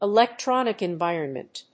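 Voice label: "electronic environment", English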